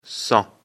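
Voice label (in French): "cent", French; afrikalan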